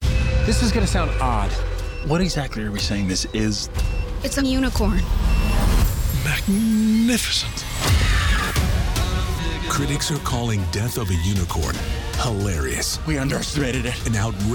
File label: TV Spots; death of a unicorn